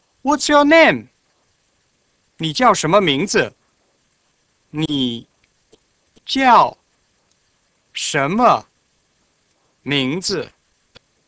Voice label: Mandarin Name; ニー ジャオ シェン マ ミンズー?; お名前は?; 標準中国語